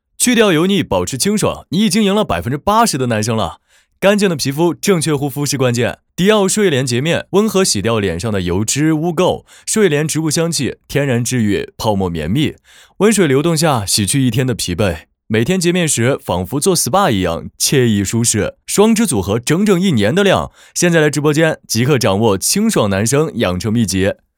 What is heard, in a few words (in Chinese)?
抖音种草清新男生去掉油腻